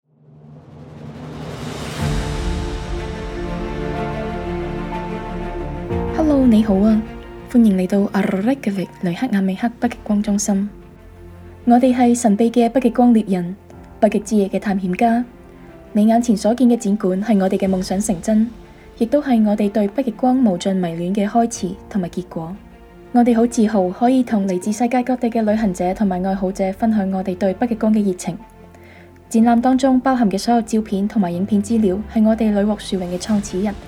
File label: introduction cantonese